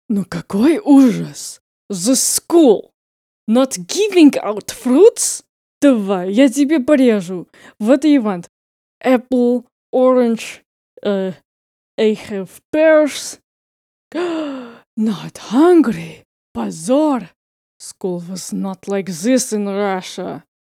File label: russian mozher, voice acting